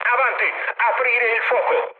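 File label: Italian attack position mood med, speech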